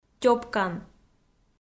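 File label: "тёпкан", Komi, female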